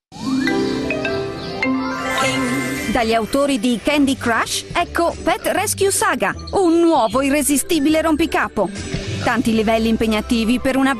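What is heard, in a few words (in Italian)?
Pubblicità; Pet Rescue Saga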